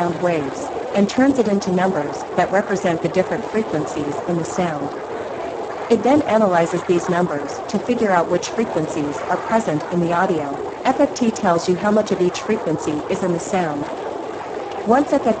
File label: high voice noise; speex